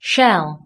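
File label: "shell", English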